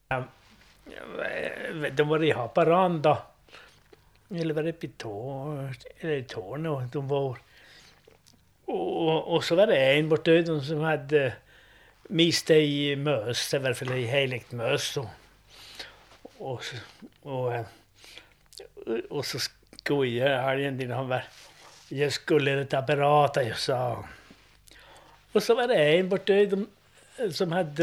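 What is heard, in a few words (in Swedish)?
Neder Luleå Norrbotten, dialekter; Folkminnen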